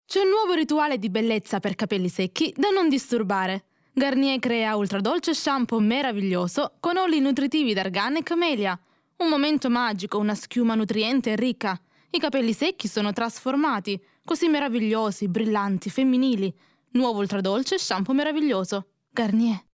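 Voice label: Italian, female, voice over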